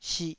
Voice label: "sih2", Taiwanese syllable

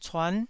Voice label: "cuan9", Taiwanese syllable

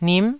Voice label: "nim9", taiwanese

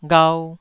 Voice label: "ghau2", Taiwanese syllable

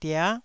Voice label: "dia9", Taiwanese syllable

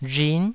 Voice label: "rhin9", taiwanese

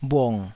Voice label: "bhung3", taiwanese Syllables

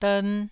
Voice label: "dern1", Taiwanese syllable

